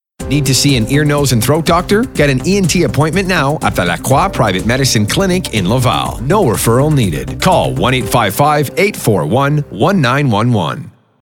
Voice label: male voice; Clinique Medicale Lacroix; ENT; radio et tele